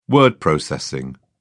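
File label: "word processing", British English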